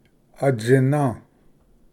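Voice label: "atsina", Cherokee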